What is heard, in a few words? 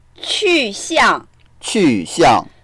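"qu4xiang4", Mandarin Chinese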